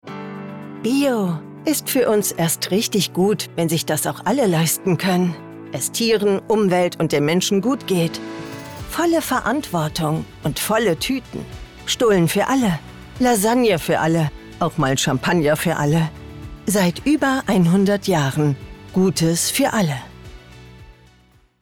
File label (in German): Werbung Supermarkt